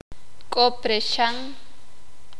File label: "Preso", sakapulteko